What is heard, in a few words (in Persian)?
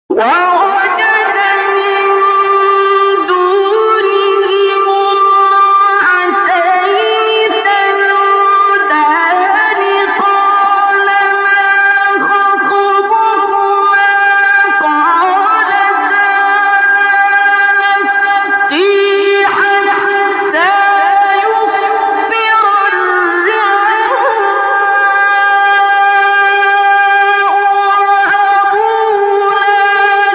تلاوت سوره قصص آیه 23; استاد عبدالباسط